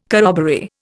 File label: "corroboree", Indian